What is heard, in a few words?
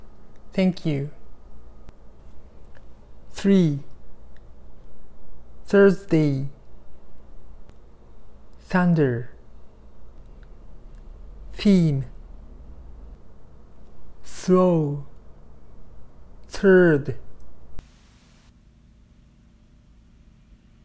th sound, pronunciation